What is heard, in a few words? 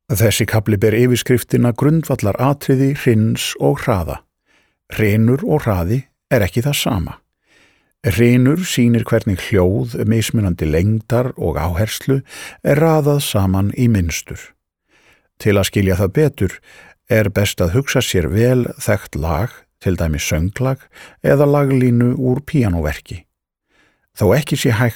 Icelandic elearning